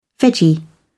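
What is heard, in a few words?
"veggie", British English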